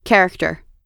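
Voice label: "character", American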